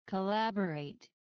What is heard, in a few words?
"collaborate", English